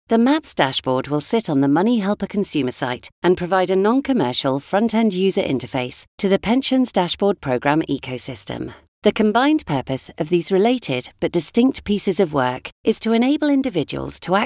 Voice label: explainer, voiceover

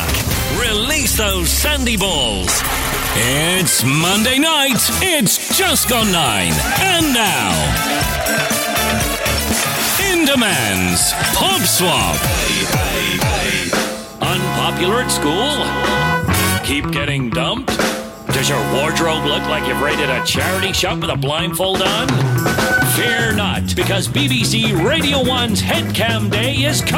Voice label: game show

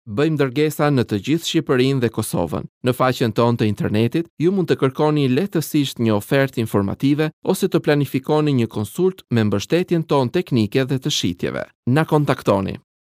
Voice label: Albanian male voice IVR

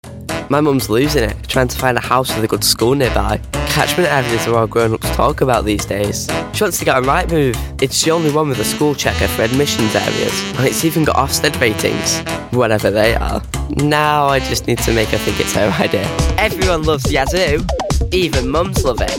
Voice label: george aged 14

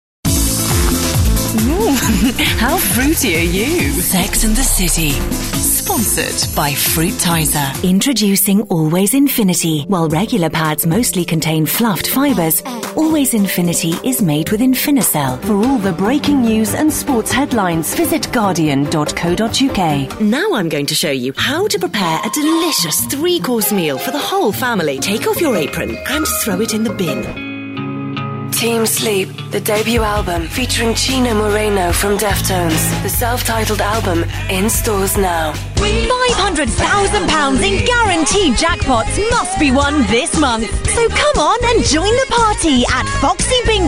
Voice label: compilation, Female Character Accent Voice

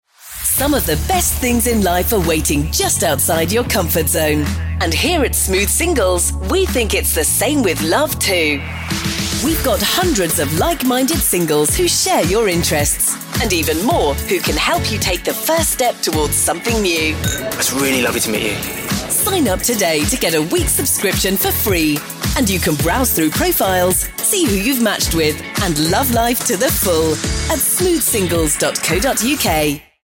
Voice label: upbeat commercial

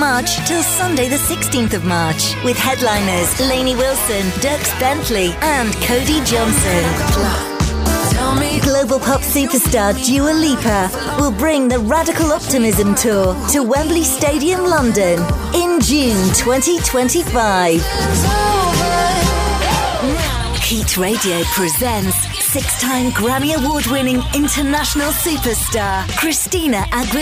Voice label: live music ads promos

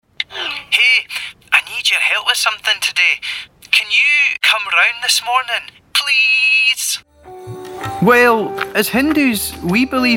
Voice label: character, Baritone British Voiceover

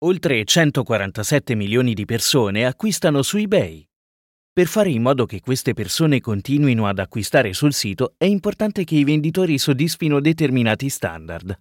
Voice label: italian male elearning

